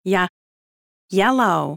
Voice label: babys sound audio YELLOW